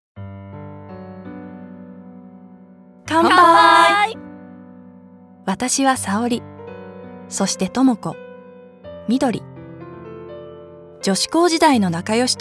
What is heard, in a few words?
voice sample natural